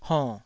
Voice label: "honn3", Taiwanese syllable

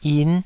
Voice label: "yin5", Taiwanese

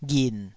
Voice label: "ghin3", Taiwanese syllable

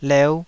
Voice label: "leu5", Taiwanese syllable

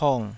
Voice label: "pong3", Taiwanese

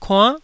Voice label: "kuann9", Taiwanese syllable

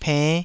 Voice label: "penn5", Taiwanese

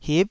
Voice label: "hip9", taiwanese

